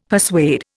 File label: "persuade", Indian; Dictionary